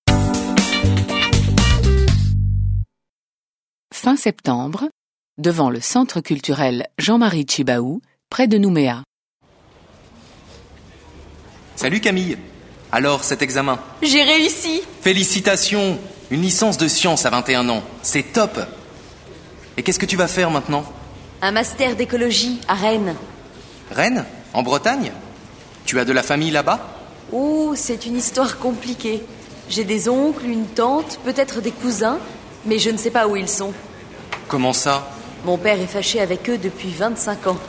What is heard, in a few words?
French